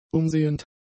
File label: "umsehend", German; adjectives